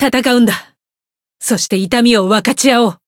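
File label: Voice encount, Japanese; clarte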